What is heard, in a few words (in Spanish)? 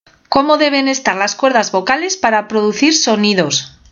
sonidos vocales